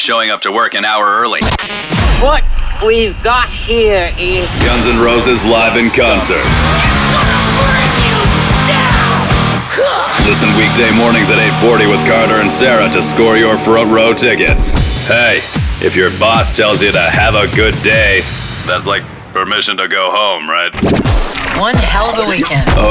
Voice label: VO Rock; voice-over demos